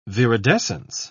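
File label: "viridescence", English, British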